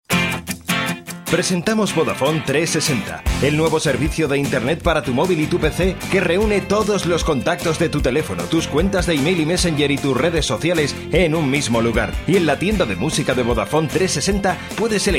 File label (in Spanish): comercial